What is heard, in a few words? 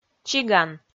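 "чиган", Komi, female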